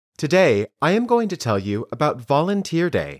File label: Dictation